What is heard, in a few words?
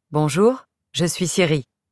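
French, France; iOS 26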